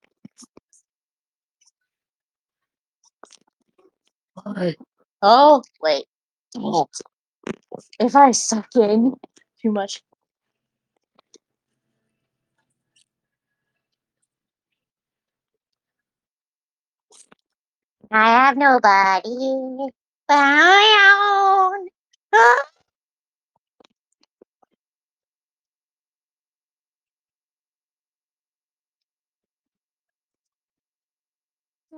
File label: voice message